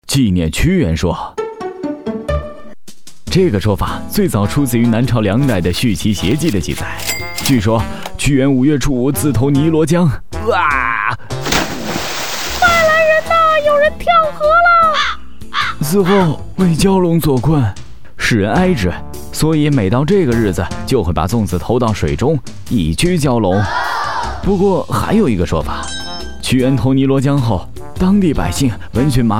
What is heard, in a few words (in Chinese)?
纪念屈原说, 国语男声配音; 飞碟说